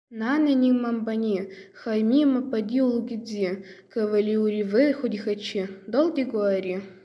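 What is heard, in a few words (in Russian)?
нанайский предложение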